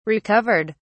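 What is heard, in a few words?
"recovered", British English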